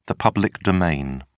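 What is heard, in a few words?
"public domain", British English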